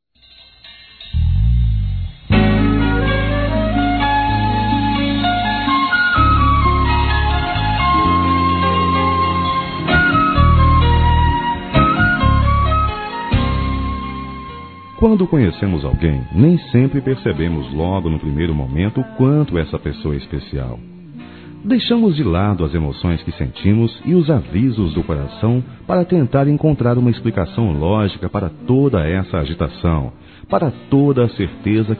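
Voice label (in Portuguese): Romântico Suave Masculino; Aniversários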